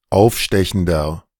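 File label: German "aufstechender"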